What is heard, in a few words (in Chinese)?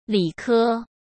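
"理科", Mandarin Chinese; hsk; chinese-flashcards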